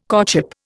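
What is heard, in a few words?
"courtship", Indian English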